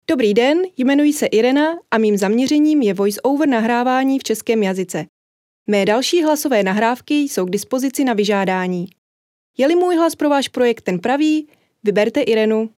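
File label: Czech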